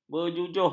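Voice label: "berjujuh", Malay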